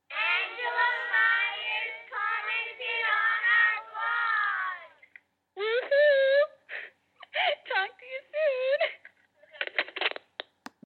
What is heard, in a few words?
phone message from students